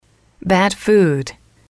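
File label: "bad food", English, female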